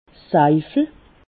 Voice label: "seifel", Alsace

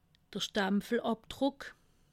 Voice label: "stampelabdruck", alsace

